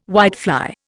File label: "whitefly", Indian